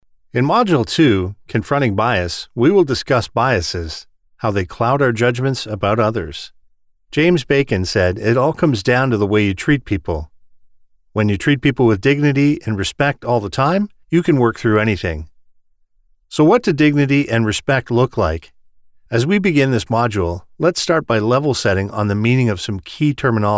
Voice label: english canadian e-learning